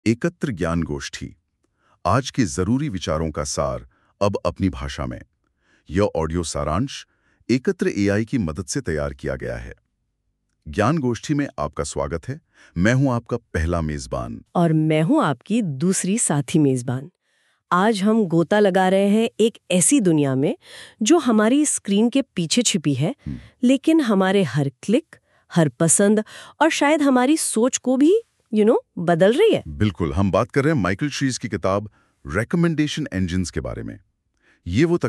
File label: Hindi